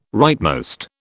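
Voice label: "rightmost", British English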